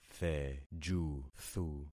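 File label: "thejuthu", concatenated syllables; Affixing experiment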